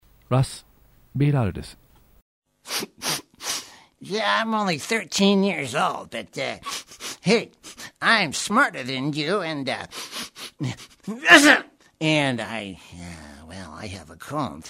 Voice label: character; USA